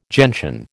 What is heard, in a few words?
"gentian", American